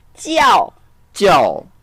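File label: "jiao4" Mandarin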